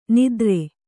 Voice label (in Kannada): "ನಾದಾರ", Kannada